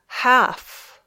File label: "half", English